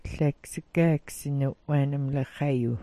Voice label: "'waanm 'nalaxa", Smalgyax